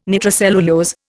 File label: "nitrocellulose", Indian